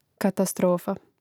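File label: "katastrofa", Croatian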